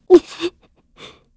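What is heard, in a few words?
Vox Sad, Korean; heroes; Hilda; kingsraid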